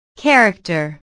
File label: "character", ingles